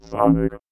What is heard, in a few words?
VOICEFX ELCTR 140; Techno2